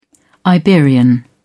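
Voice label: "iberian", British English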